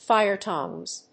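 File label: "fire tongs", English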